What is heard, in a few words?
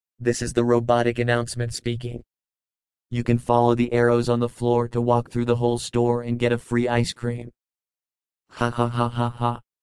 supermarket announcement